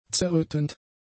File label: "zerrüttend", German; adjectives